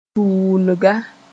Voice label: "tuunga", moore